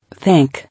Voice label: "Thank", English